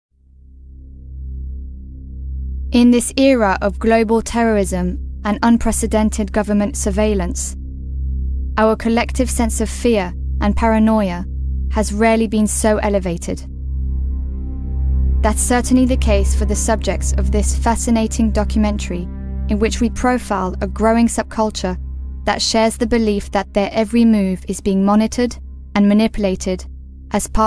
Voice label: Narration Voiceover